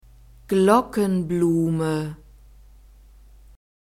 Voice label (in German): "glockenblume", German; nouns gender feminine